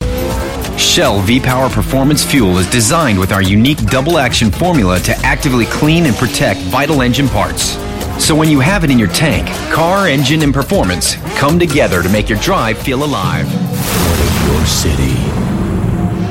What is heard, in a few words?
VO Reel, English US Male